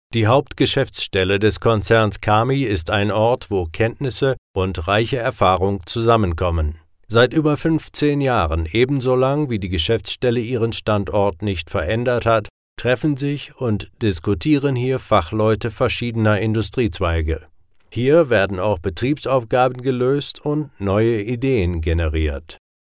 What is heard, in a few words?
german male